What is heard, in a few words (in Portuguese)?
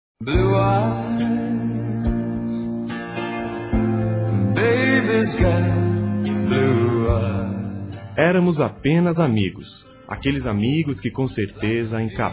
amizade colorida, Telemensagens, Masc